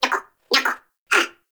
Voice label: Baby Vocal Spoken; Nokko; Warframe; Nokko Colony; Find Nokkos

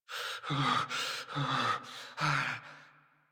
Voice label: Generic Cold Shiver Operator Voice; Operator Mission

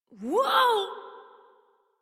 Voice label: Horse Stop Adult; Warframe; Duviri

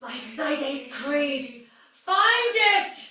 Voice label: sight lost shout soldier female, battle barks; Dialog; Sinecure

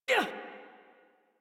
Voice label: Adult Operator Jump, en; Warframe; New War

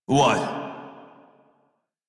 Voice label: Crew Greet Male en; Dialog; Warframe; Railjack Crew; Red Veil

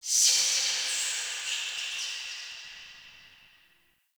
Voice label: Root Cave Distant Chatter, Ambience; One Offs; Neathuns Root Cave

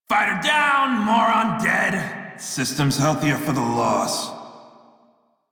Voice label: Crew Gun Kill Fighter Male en; Dialog; Warframe; Railjack Crew; Red Veil

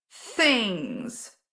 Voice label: Operator Voice; Sacrifice Quest; Mimic Beat

